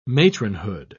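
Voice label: "matronhood", British English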